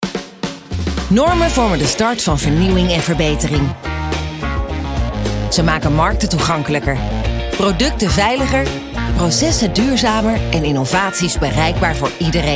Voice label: zakelijk

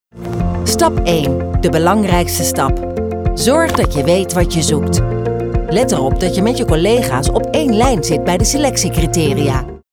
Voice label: NL educatief

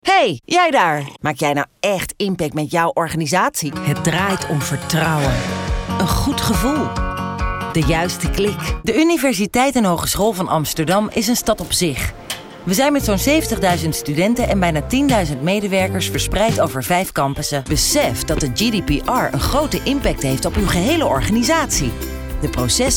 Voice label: corporate

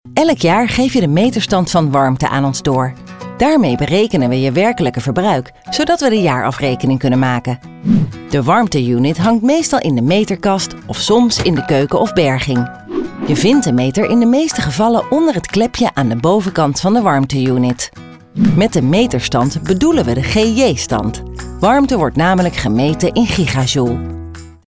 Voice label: informatief

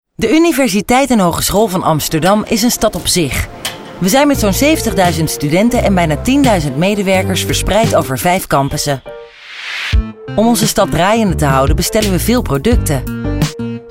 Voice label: NL naturel

